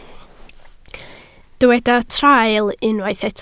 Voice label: "traul", Welsh